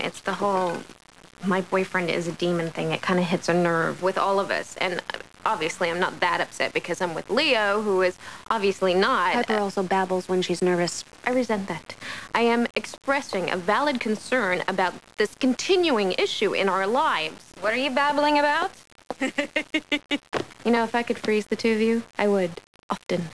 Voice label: wrestling babble